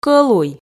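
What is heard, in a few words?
"кӧлуй", Komi, female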